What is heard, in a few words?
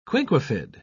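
"quinquefid", English, uk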